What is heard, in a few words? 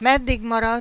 "meddig", Hungarian